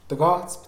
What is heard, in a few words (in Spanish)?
"convertirse", Mixe, hombre; INALI